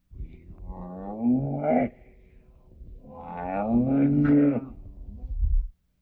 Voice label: xsynth speech mod cow